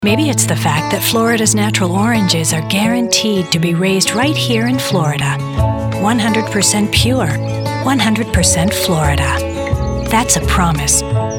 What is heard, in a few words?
Commercial Florida Oranges